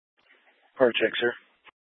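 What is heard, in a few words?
Incident Tac, Scanner audio recording; Incident Tac 1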